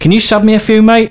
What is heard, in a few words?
Need Money, male; svencoop